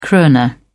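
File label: "krona", British English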